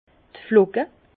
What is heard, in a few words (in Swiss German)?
"flocke", Bas Rhin; flocons; vin